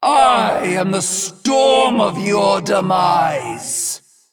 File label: Female Dragon Play